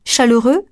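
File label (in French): "chaleureux" French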